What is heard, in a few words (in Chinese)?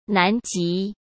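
"南极", Mandarin Chinese; chinese flashcards; hsk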